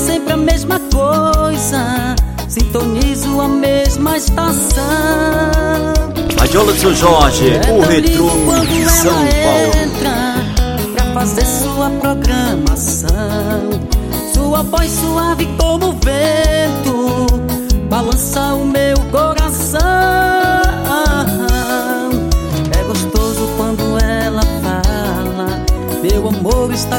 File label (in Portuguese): a locutora